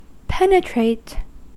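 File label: "penetrate" English, US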